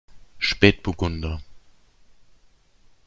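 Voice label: pronunciation german spätburgunder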